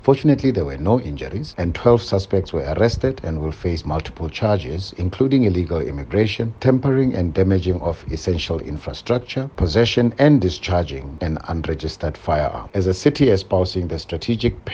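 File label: English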